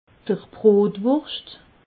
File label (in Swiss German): "brotwurscht", alsace